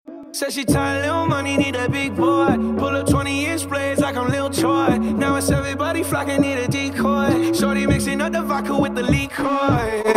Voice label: wow bass boosted

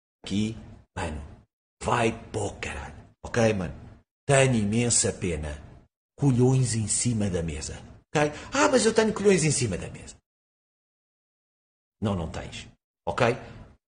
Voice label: rage

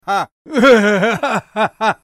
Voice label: voice portugues brasileiro brazilian portuguese; singed; league of legends

